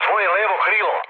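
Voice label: Serbian left wing mood low, speech; War Thunder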